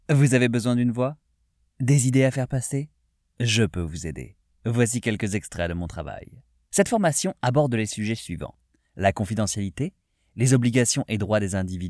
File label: Elearning